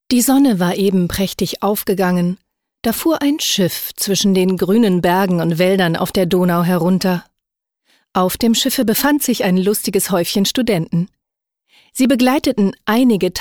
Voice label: DE Female Audiobook